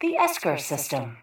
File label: PA System